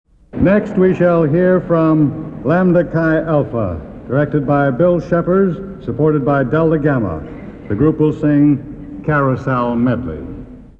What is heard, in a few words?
announcement